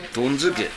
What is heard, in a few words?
"donzuki", minamiyamagata